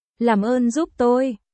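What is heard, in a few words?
Vietnamese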